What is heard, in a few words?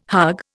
"hug", Indian